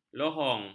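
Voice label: "lohong", Malay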